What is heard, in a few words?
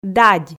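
"дадь", Komi, female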